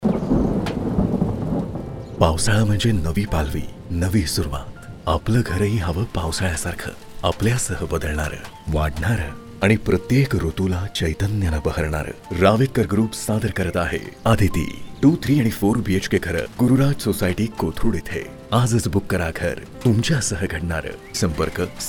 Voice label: Radio Spot, Marathi